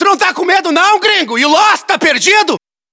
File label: hostile burst, battlechatter; cod modern warfare 2